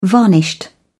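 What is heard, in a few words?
"varnished", British English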